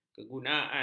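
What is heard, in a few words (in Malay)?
"kegunaan", Malay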